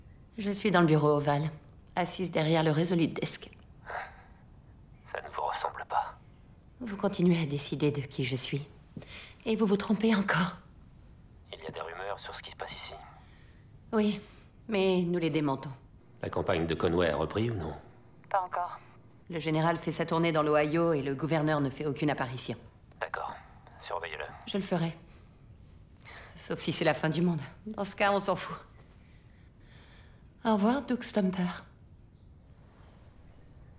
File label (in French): doublage; house of cards